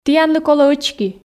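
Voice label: "тіянлы колӧ ӧчки", Komi, female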